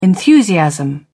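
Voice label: "enthusiasm", English, British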